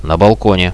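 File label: on the balcony, Russian, radio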